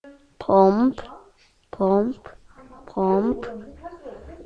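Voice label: "pump", spoken word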